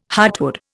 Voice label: "heartwood", Indian